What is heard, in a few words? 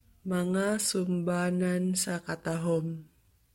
Cebuano